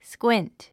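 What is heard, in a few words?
"squint", English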